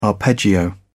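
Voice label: "arpeggio", British English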